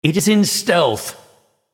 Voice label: male nightborne error stealth